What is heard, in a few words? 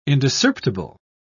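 "indiscerptible", British English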